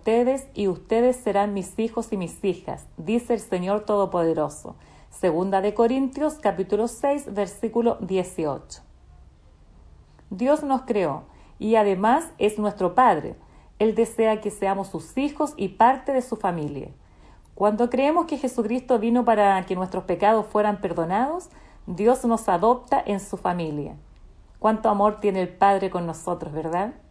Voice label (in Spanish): devocional